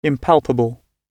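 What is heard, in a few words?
"impalpable", English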